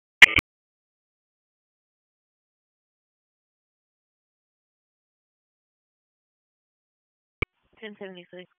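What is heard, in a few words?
Dispatch 1, P25; WSO DISP 1; wake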